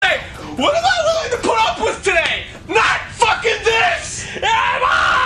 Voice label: freaks out; game grumps moments; arin; sonic glitch